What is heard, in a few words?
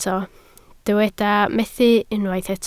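"methu" Welsh, spoken word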